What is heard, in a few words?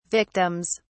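"victims", British English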